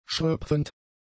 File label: "schröpfend", German; adjectives